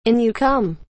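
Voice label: "In you come", English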